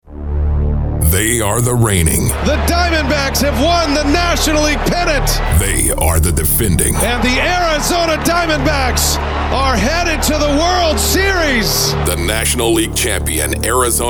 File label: REG SEASON HYPE PROMO